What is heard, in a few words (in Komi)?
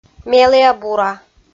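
"меліа-бура", Komi-Zyrian, female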